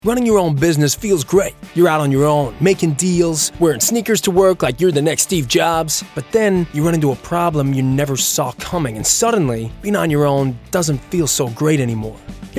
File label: US Voice